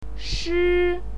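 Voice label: "shi", Mandarin Chinese, first tone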